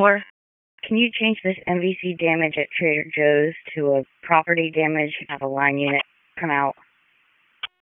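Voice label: Police Northeast District Disp, radio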